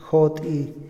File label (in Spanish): cautivo, Otomí, hombre; INALI